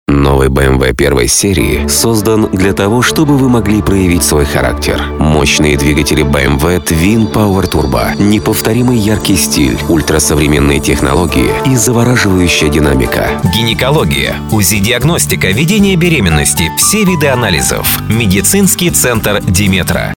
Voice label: russian commercial